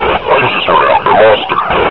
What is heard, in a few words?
lost long, combine soldier, near; hl2